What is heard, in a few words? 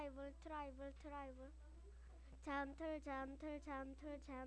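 "gentle", spoken word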